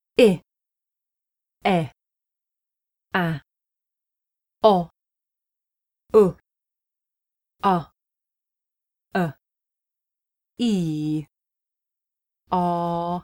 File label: Sounds of British English vowels; Introduction